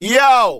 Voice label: "yo", voice

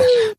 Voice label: "oh" girl; ooh ahh

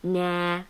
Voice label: "nah" girl, Voice; expletives

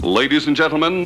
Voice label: ladies and gentlemen announcer

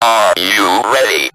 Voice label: "are you ready", robot; Voice; introductions

